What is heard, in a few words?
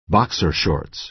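"boxer shorts", American English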